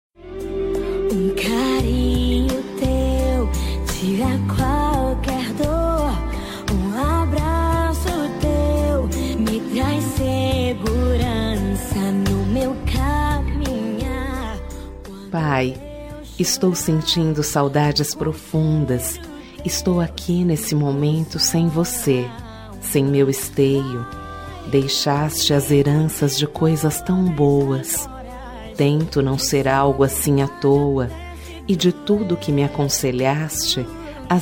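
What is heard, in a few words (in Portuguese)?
dia dos pais female distante, voz feminina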